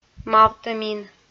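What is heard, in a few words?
"мавтӧмин", Komi, female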